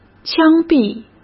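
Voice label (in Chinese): "枪毙", Mandarin Chinese; chinese flashcards; hsk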